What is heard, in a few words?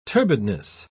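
"turbidness", British English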